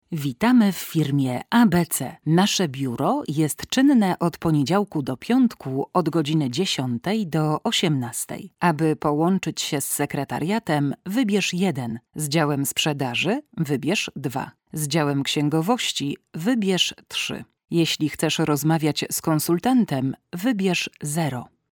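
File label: IVR, female, PL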